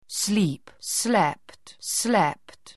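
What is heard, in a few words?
"sleep", English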